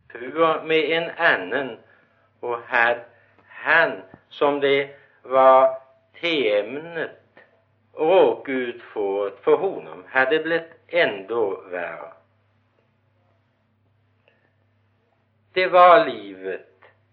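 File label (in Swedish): Gårdby Öland, dialekter; Folkminnen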